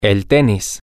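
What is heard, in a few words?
Spanish, tennis, male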